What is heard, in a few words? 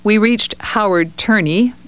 higher pitch on reached